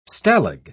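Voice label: "stalag", British English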